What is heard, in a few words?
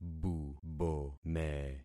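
"bubome", concatenated syllables; Affixing experiment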